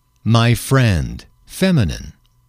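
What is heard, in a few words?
"my friend", English, male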